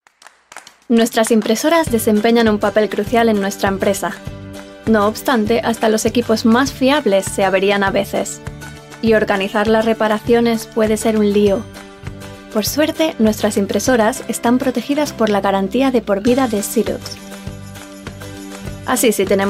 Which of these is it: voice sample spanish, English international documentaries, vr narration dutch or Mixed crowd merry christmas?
voice sample spanish